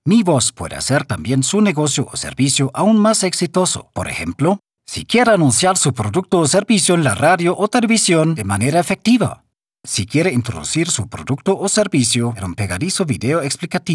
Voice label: Spanish